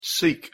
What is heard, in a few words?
pronunciation en seek